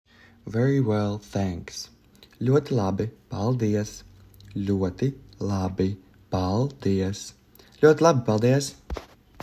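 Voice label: "Very well paldies", Latvian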